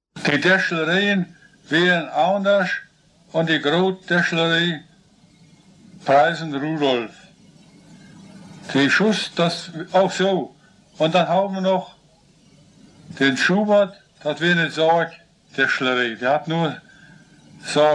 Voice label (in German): Stutthoefer Platt; Stutthof